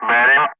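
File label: "bearing", English; Ka-50; Wingman Messages; DCS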